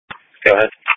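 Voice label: Police Patrol Dispatch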